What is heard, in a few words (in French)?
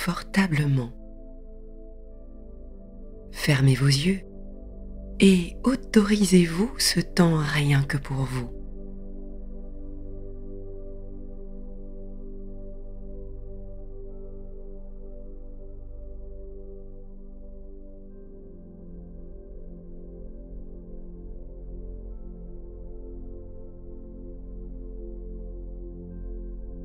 Pause meditative; Bulle de bien etre